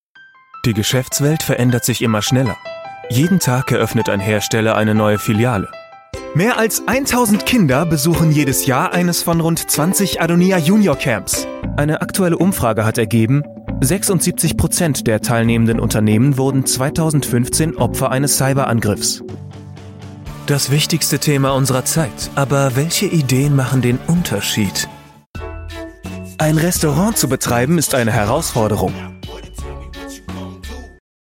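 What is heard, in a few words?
German, Corporate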